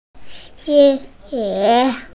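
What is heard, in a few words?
"rain boots", hearing impaired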